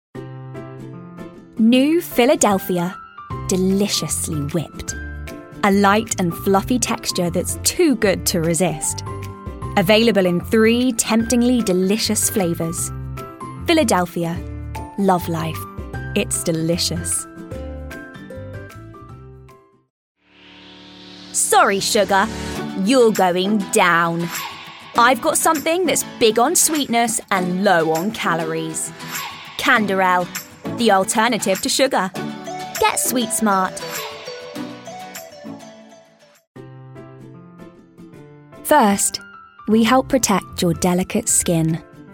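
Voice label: British English Commercial; GoLocalise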